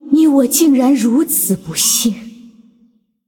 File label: Female Ghost Mirror Start, zh